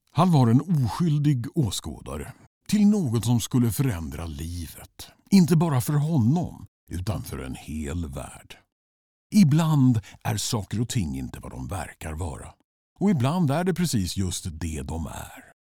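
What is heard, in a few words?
promo, Swedish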